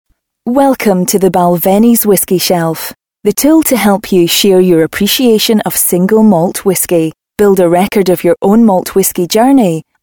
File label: Scottish Voice Overs Narration